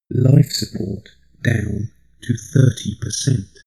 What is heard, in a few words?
male system status